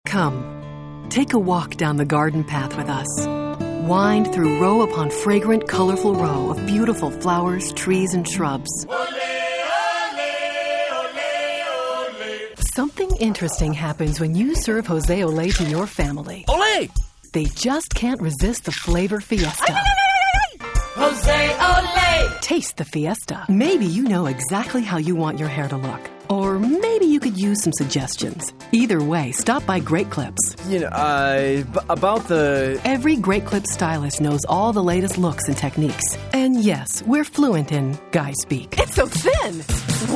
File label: Voiceover